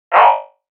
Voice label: grunt